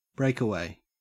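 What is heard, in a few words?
"breakaway", Australian English; pronunciations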